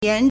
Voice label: "yan2", Chinese